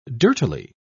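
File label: "dirtily", British English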